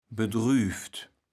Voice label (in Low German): "bedrüüft", kaevels platt